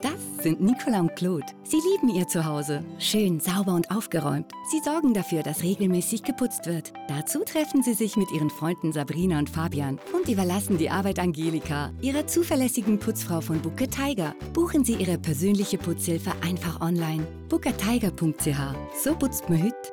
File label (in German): tv spot ch; book a tiger